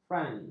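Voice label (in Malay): "franc", Malay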